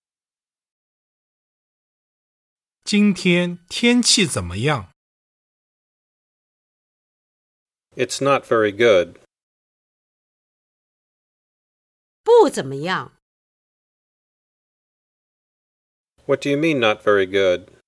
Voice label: Dialog; Chapter 6: Weather